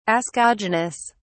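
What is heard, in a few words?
"ascogenous", British English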